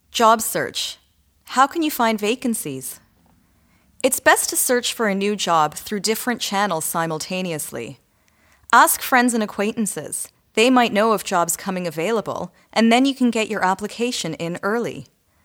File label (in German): stellensuche englisch